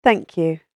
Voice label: Thank You - British Female Voice; talking; human voice; spoken word